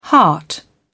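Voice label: "heart", British English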